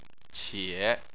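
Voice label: "qie", Chinese, tone 3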